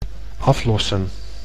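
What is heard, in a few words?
"aflossen", Dutch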